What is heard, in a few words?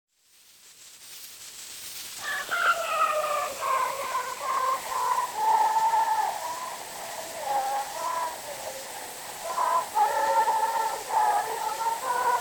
Zulu Recordings; Father Franz Mayr